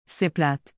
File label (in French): C'est plate !, Québécois French; Boring!